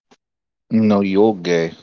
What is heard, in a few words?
voice message